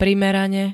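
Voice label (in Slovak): "primerane", Slovak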